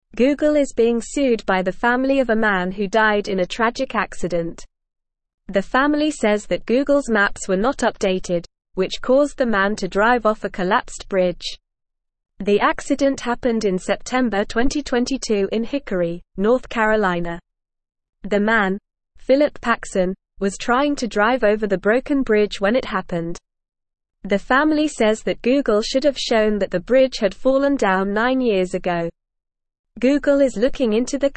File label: English Upper Intermediate NORMAL Reading; Family Sues Google Over Outdated Maps Leading to; English Newsroom